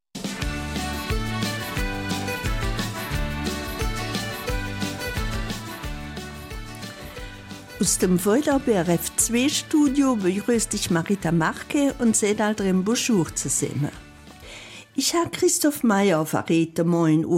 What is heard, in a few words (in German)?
Eifeler Mundart; Unternehmer mit nur 20 Jahren